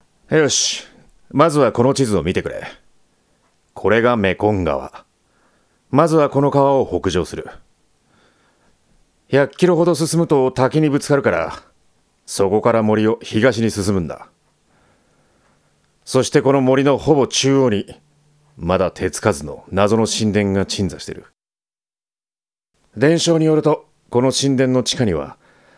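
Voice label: young man, Japanese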